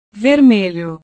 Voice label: "vermelho", Portugués; rojo

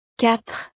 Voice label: "quatre", frances

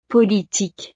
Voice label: "politique", frances; profesiones

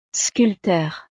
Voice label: "sculpteur", frances; profesiones

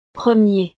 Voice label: "premier", frances

